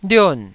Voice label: "lion4", Taiwanese